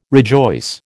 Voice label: "rejoice", American

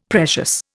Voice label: "precious", Indian; Dictionary

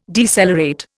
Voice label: "decelerate", Indian

